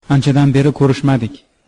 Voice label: long time no see uzbek

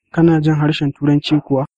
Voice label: do you speak english, hausa

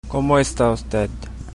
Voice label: how are you, chabacano; Como esta Usted?; Chabacano de Zamboanga

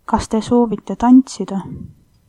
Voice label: dance, estonian

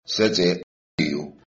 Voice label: my name is, Chechen

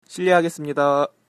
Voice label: excuse me, korean

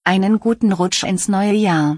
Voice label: new year, german

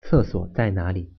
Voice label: "toilet", Mandarin

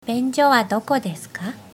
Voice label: toilet, japanese; 便所はどこですか

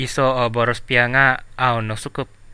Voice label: one language, central dusun

